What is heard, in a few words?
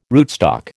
"rootstock", American